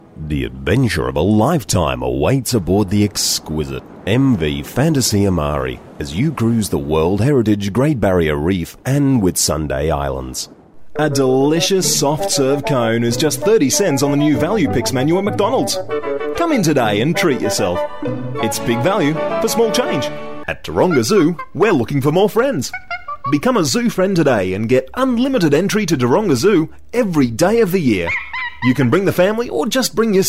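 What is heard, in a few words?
English Australian commercial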